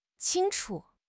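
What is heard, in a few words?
"qing1 chu5", Mandarin Chinese; HSK3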